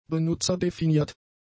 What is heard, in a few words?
"benutzerdefiniert", German; adjectives